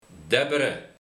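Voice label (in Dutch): "debbere", dialect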